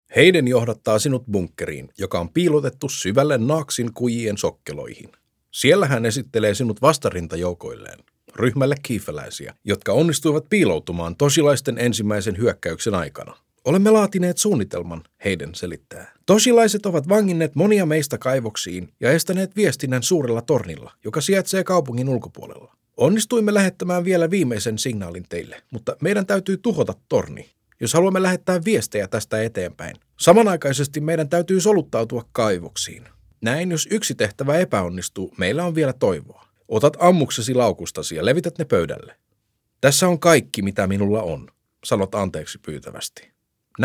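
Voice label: Finnish Audiobook Male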